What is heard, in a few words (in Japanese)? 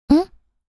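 "ん?" Japanese, システムボイス; Windows向けシステムボイス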